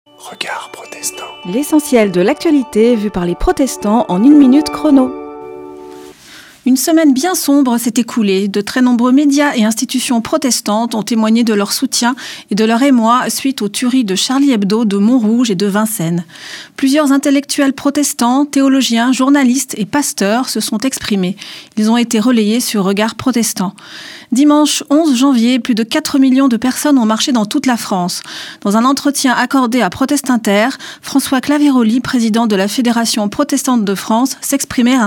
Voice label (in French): Spot radio; Regards protestants